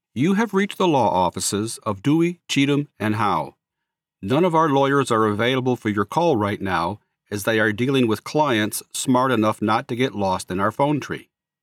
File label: IVR Script